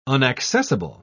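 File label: "unaccessible", British English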